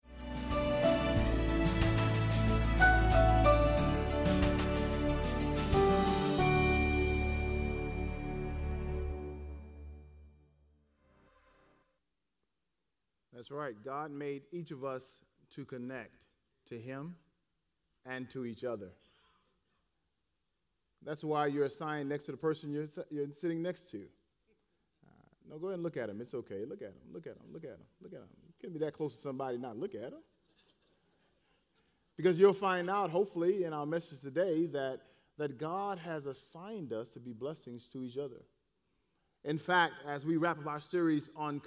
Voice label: English Sermon